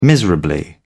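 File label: "miserably", British English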